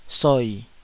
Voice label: "soi3", taiwanese Syllables

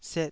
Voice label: "set2", taiwanese

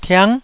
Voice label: "tiang9", Taiwanese

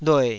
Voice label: "lue3", Taiwanese syllable

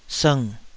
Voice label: "sng3", Taiwanese syllable

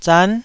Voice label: "zan9", taiwanese syllables

